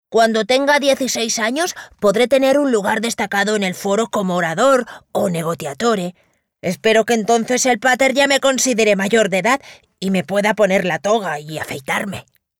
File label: female spanish kid comercial